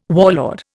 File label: "warlord", Indian